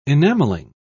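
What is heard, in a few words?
"enamelling", British English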